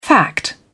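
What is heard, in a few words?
"fact", British English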